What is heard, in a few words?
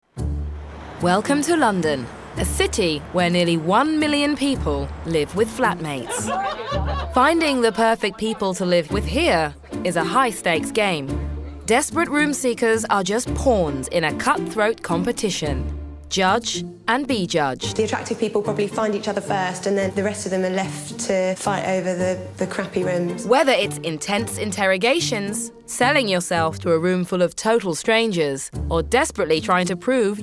english british tv shows